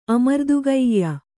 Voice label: "ಅಮರ್ದುಗೆಯ್ಯ", Kannada

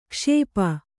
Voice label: "ಕ್ಷಪೆ", Kannada

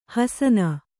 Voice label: "ಹಸನ", Kannada

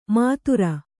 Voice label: "ಮಾತುರ", kannada

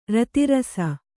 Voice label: "ರತಿರಸ", kannada

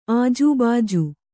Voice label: "ಆಜೂಬಾಜು", Kannada

